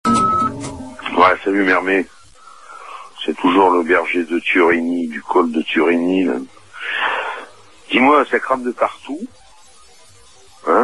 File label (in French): Incendies repondeur